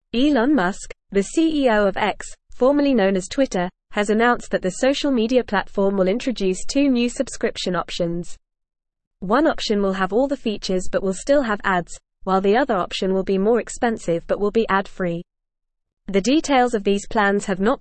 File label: English Newsroom Upper Intermediate FAST Reading; Elon Musks X to Introduce Premium Subscriptions